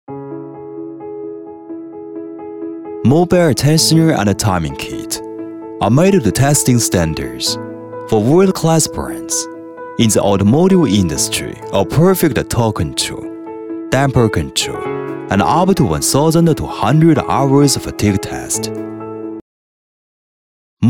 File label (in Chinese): 英文企业宣传片 讲解; MOBEAR